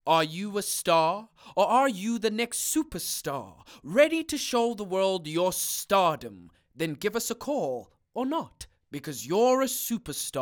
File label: Hard Sell